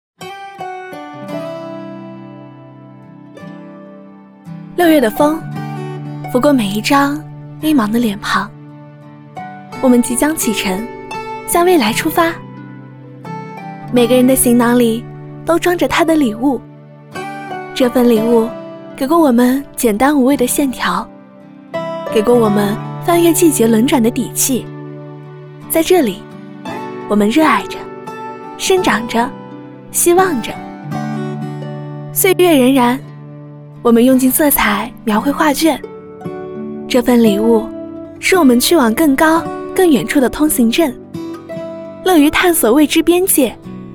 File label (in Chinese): 女 独白 上扬积极; 遇见您好